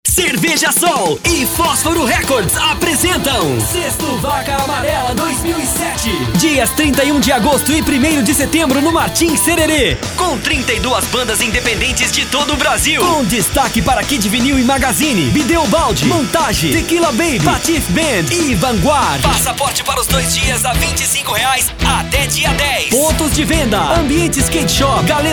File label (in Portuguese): spot; maratona de rock em goias